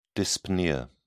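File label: "dyspnea", British English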